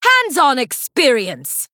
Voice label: Female Human Attack

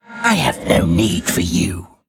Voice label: Undead Emote

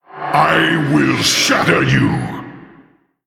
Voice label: Male Orc Threaten; Thrall Deathknight

